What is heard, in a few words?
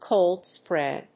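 "cold spray", English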